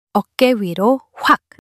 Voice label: fluster

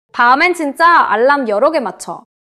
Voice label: neutral

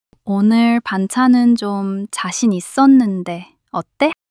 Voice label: shy

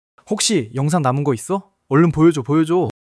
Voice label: excitement

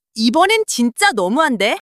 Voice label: anger

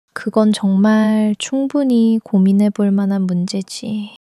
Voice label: sadness

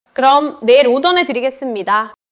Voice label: neutral

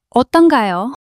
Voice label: fluster

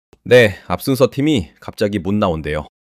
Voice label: fluster

